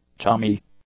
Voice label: "chummy", English; adjectives